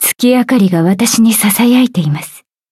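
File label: levelup, Japanese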